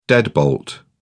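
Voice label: "deadbolt", en, British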